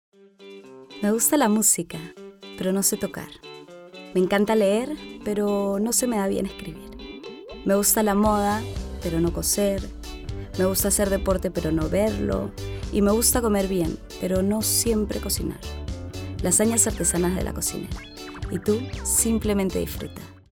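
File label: female latin american peruvian comercial; latam; ideasonora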